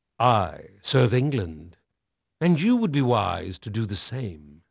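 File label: English male; Pirates Of The Caribbean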